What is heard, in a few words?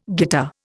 "guitar", Indian